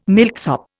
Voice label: "milksop", Indian